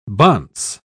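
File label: "bonce", British English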